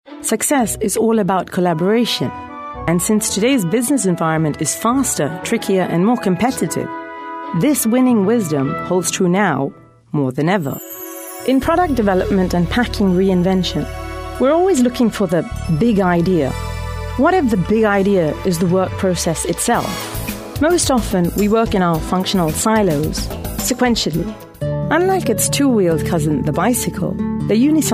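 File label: narration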